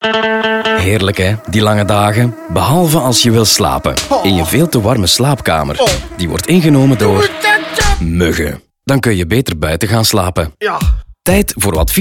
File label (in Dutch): Mosquito, nl